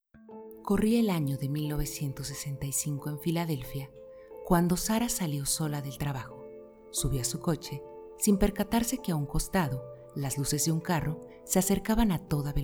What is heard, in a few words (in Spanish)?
Narrativa musicalizado, locutora